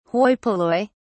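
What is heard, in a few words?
"hoi polloi", British English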